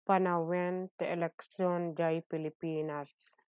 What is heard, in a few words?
Ilocano; election season in the Philippines - panawen ti eleksiyon diay Pilipinas